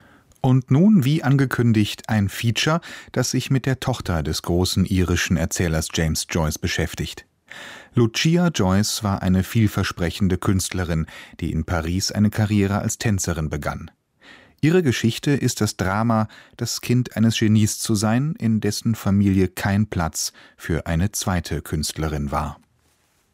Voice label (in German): Hoerspiel Zwischenansage